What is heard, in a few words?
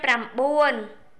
khmer nine